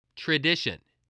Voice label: "tradition", voice

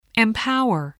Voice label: "empower", spelling

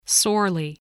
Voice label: "sorely", English voice

